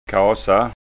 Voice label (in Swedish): "kasa", skanska